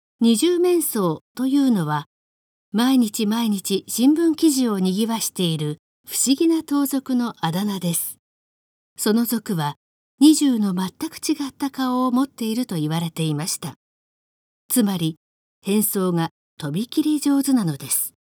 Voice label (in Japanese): normal, 標準; 怪人二十面相; Japanese